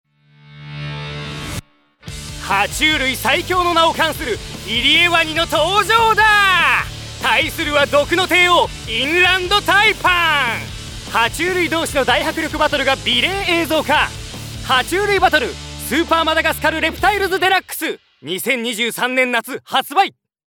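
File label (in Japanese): ナレーション, 男性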